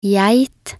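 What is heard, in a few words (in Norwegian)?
"geit", Norwegian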